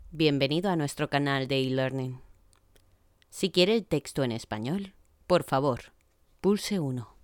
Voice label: e-learning

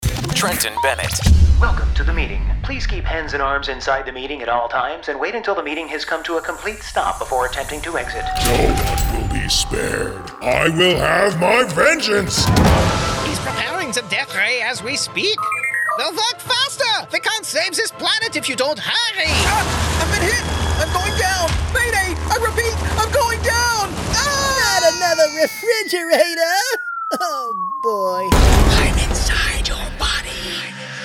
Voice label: animation

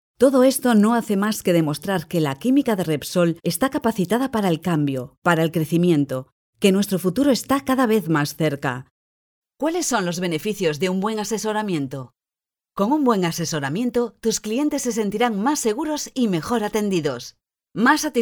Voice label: E-Learning

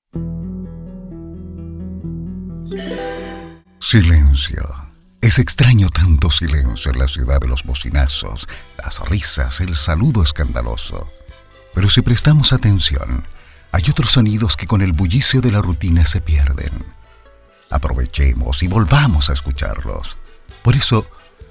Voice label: Spot TV

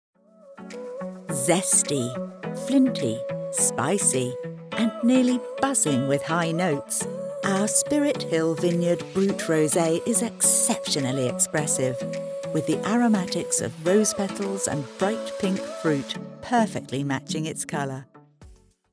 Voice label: Wine commercial; Spirit Hill

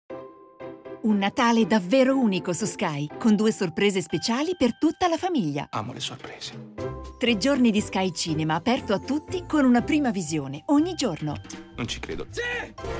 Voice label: TV Promo

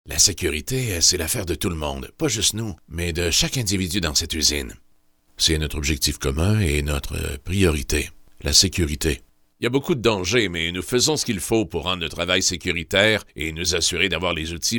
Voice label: multiple voices interacting

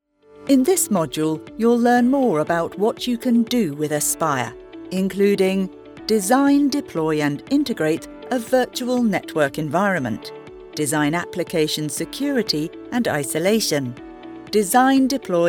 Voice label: software training

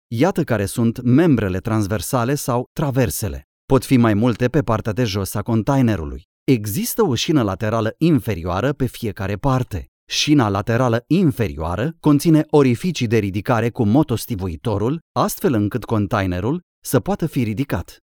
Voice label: E-Learning

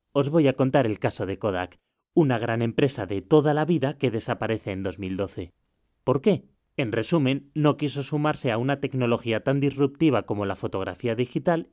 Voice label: elearning

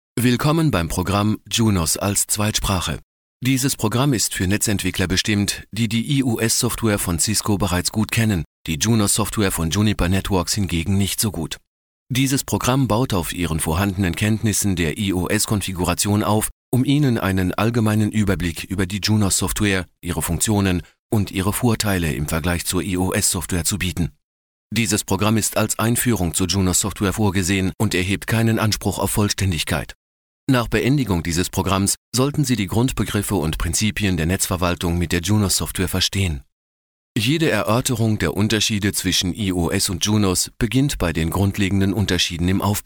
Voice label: E-Learning; GE

